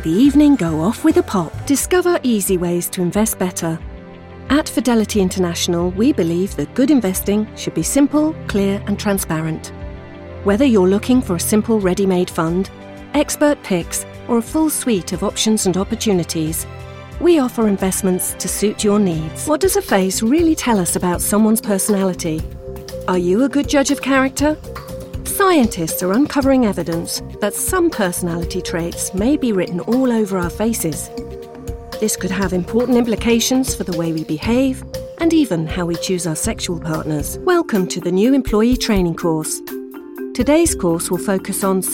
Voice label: english british narration